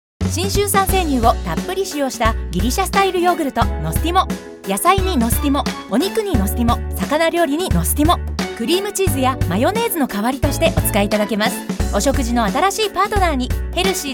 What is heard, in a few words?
radio; nostimo